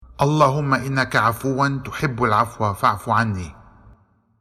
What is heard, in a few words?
Dua Arabic; Lyalat Al Qadr